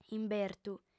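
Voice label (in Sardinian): "imbertu", female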